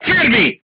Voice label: short stop, crew tanks italian; War Thunder